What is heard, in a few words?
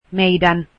our, finnish